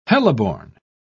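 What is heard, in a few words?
"heliborne", British English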